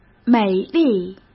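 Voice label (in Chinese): "美丽", Mandarin Chinese; hsk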